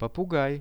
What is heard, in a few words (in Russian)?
"popugai", Russian; birds; RUSSIAN VISUAL DICTIONARY